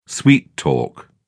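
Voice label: "sweet-talk", British English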